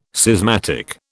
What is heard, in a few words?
"schismatic", British